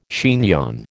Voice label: "chignon", American